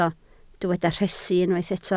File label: "rhesi", Welsh